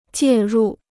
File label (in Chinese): "介入", Chinese